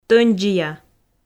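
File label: "тӧндзия", Komi, female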